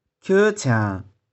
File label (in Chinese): "khieu6chiang1", 玉環